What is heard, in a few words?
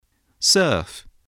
"surf", English